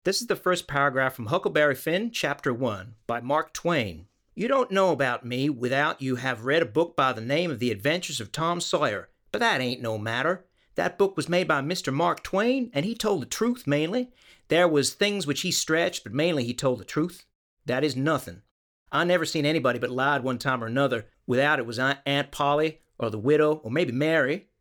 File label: American accent